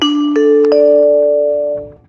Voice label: Announcement Begin